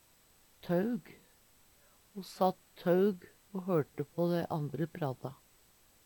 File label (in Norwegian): "tøug", Numedalsmål; Adjektiv